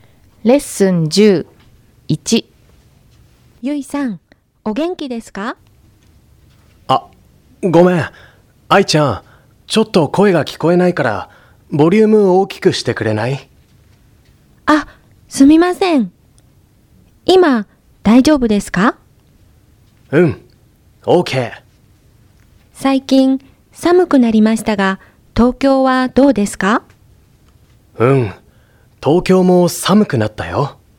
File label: Conversation